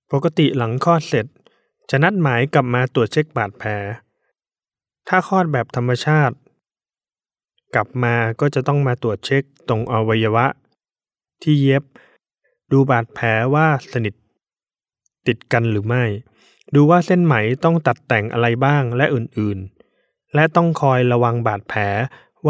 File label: Thai